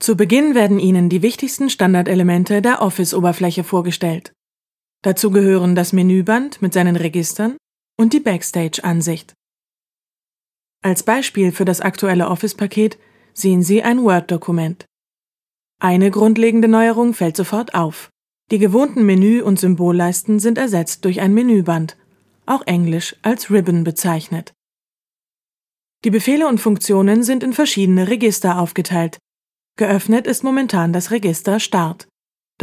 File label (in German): E-Learning Sprecherin